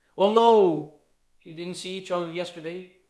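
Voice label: Voice Acting, Spanish, male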